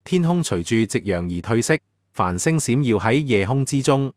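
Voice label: Hong Kong Chinese; iOS 26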